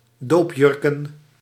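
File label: "doopjurken", Dutch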